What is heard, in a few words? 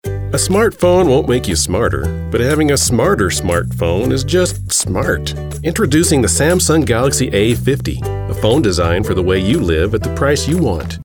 voice over; samsung